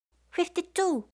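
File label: "fifty-two", English; eng suuji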